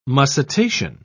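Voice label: "mussitation", British English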